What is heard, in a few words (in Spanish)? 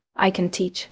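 Voice Acting, es, female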